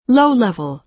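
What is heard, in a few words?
"low-level", American English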